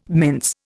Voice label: "mince", Indian

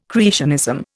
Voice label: "creationism", Indian

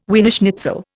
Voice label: "Wiener schnitzel", Indian

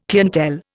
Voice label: "clientele", Indian

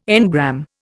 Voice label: "engram", Indian

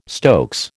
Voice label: "stokes", American

